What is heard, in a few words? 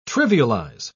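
"trivialise", British English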